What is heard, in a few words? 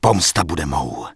Night Elf Male Charge; wowpreklad; czwow; NightElfVocalMale